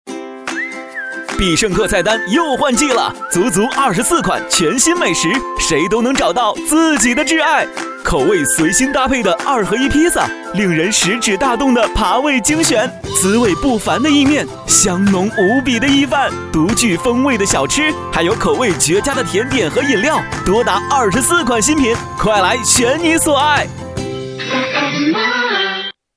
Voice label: 男12 广告 年轻时尚; 必胜客

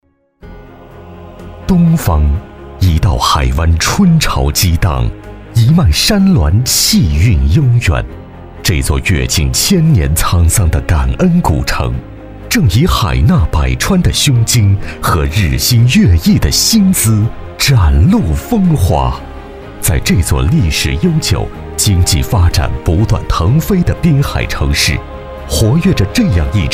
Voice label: 男 大气专题; 男10号（大气、品牌）; 男10-大气专题-海南省妇幼

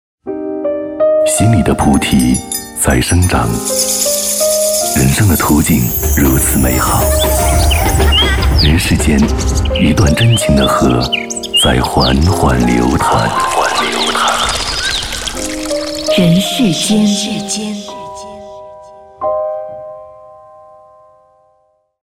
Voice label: 男 片花; 人世间

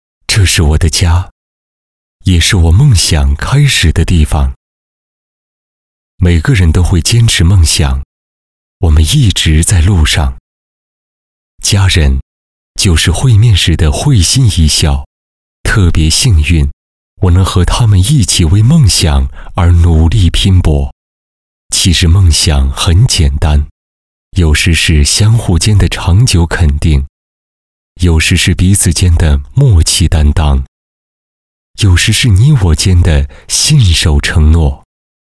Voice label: 男 独白 深沉

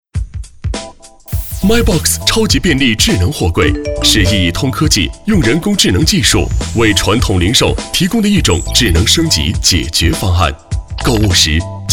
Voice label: 男4 专题 科技

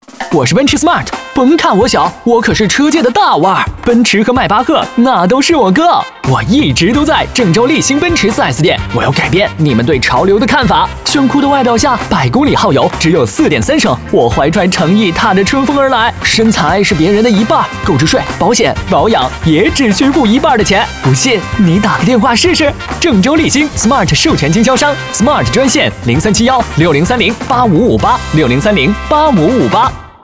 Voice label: 男 广告; 奔驰smart

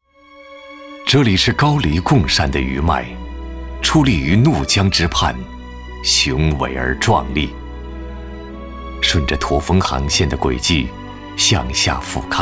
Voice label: 男 景区 旅游区

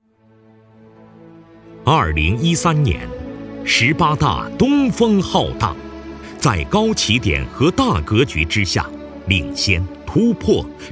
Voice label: 男 专题汇报; 男11-专题汇报-国网重庆市区供电公司

